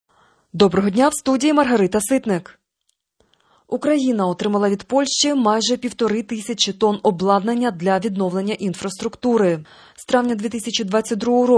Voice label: Ukrainian Morning News